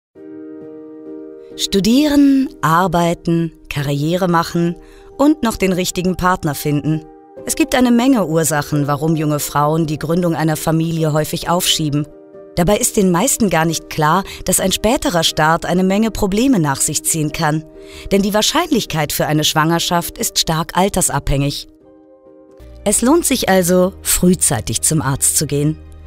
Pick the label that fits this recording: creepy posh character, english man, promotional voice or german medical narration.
german medical narration